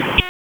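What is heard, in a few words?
Raleigh Police North District Tactical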